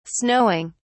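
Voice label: "snowing", British English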